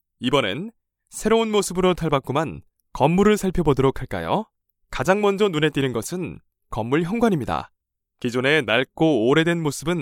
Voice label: Korean male; Foreign language voice over